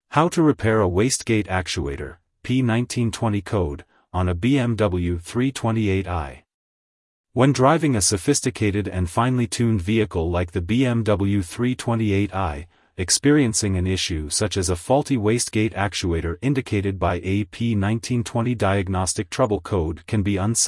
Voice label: Male voice over, How to Repair a Wastegate Actuator; BMW 328i; P1920 Code